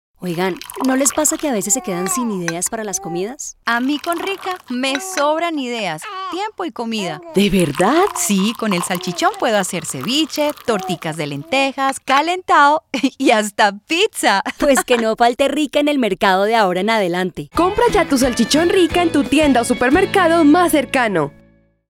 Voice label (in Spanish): Radio; Rica; Salchichon